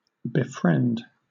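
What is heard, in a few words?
"befriend", British English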